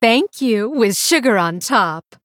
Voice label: Female Blood Elf Thanks